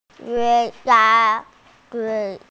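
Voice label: "nv3 hai2", Mandarin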